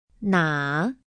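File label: "na nar", Chinese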